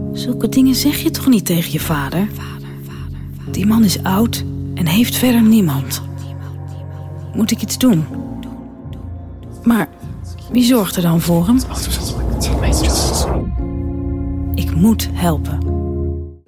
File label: Voice Over